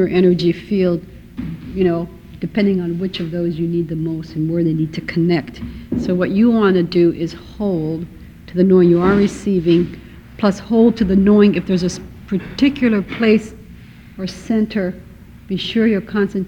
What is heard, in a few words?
Rainbow Fire Meditation